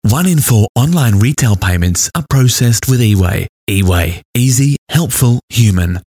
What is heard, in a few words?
voiceover; Brisbane Voiceover Recording